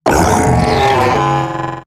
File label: Death Eximus Corpus Robot Large; Dialog; Barks; Battle; Corpus Robotic Large